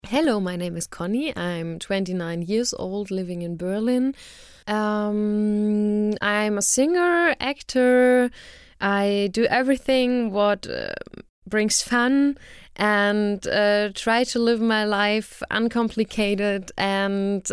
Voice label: english